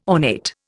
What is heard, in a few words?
"ornate", Indian